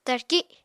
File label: "tarki", apatani